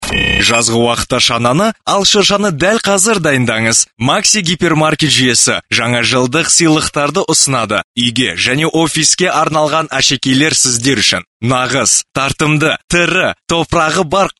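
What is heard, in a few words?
kazakh male commercial; voice demos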